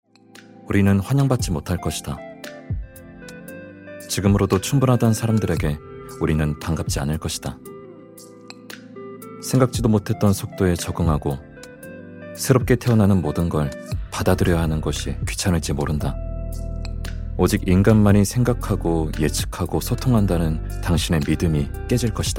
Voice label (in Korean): 광고 기업홍보 당당한 여유로운